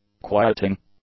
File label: "quieting", English; nouns